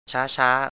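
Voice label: "ช้าช้า" Thai, male; 'slowly'; chá:chá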